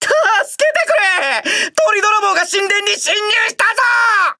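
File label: start, Japanese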